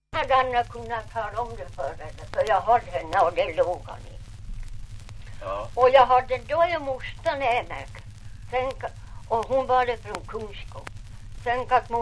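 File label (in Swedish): Ovansjö Gästrikland, dialekter, svenska sagor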